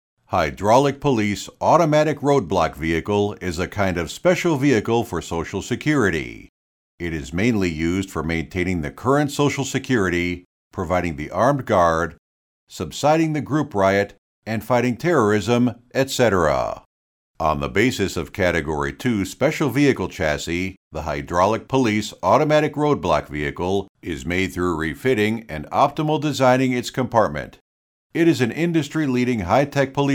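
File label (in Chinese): 外籍英男中英文; 春警用液压自动路障车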